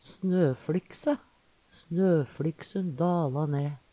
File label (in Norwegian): snoflykse, Numedalsmål; Substantiv hokjønn; Vêr og føre; SnøfLyksun dala ne.; Veggli